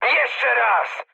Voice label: bomb failure mood med, speech pol; War Thunder